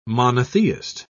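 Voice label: "monotheist", British English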